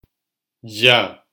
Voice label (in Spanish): "lla", silabas; lectura